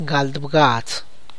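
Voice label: Tlacochahuaya Zapotec; twenty-seven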